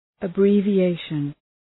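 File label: "abbreviation", English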